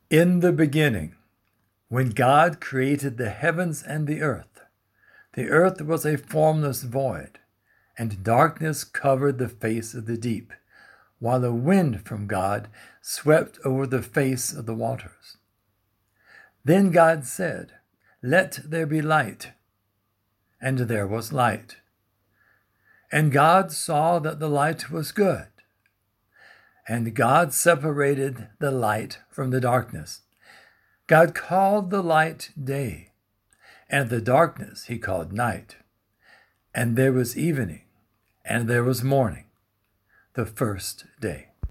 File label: Carol Service Reading